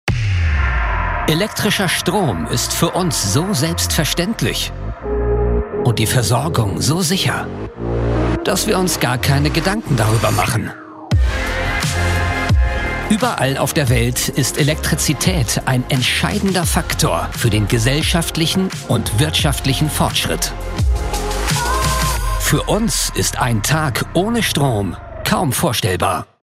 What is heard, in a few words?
Corporate Strom; voice